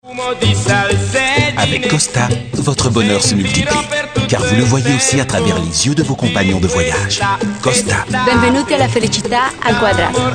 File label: commercial, French, male